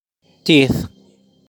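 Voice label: "Teeth", pronunciation; body parts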